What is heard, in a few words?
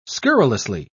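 "scurrilously", English, British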